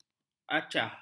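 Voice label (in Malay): "acah", Malay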